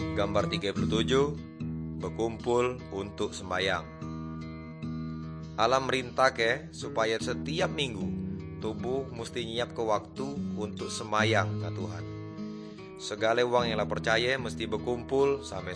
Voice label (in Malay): good news melayu tanjung raja